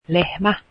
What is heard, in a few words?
cow, Finnish